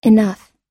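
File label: "enough", American English; enough /inʌ́f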